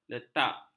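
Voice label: "letak", Malay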